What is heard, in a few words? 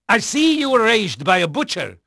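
Dutch male, voice; Pirates Of The Caribbean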